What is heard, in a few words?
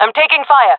taking fire, fem; player vo; Counter Strike Model Pack; CS:GO